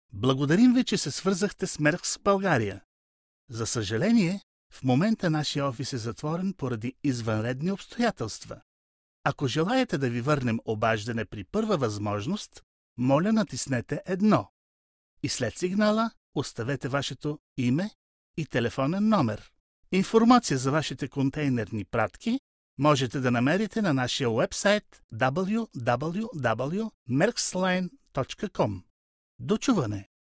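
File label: bulgarian male ivr, voice demos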